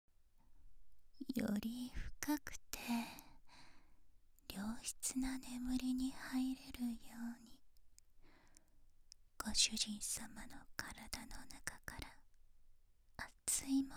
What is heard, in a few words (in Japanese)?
メイドの耳舐め